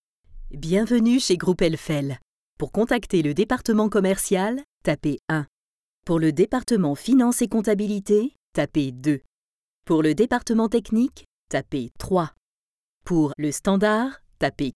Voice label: IVR Phone system; Groupe El Fal